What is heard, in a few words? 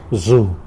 "zu", tlacolula; is standing